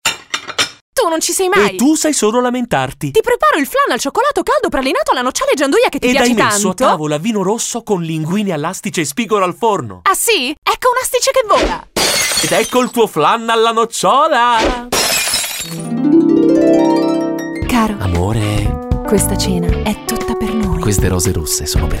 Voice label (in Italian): radio sexy; radio cenetta